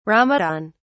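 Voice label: "ramadan", British English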